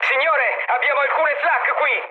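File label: Italian damaged aa mood med, speech; War Thunder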